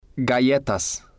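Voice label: "galletas", Spanish, male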